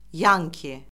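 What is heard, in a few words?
"yanki", Azerbaijani; Orfoqrafiya Lüğəti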